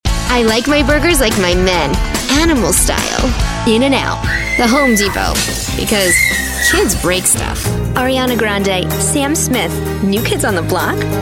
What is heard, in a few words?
Ad